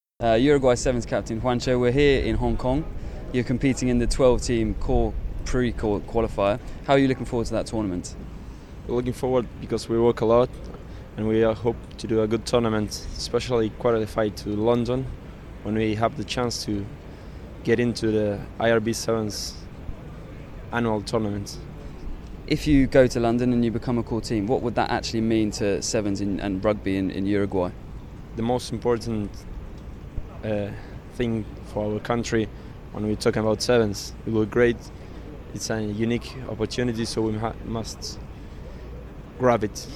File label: English